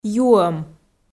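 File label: "юӧм", Komi, female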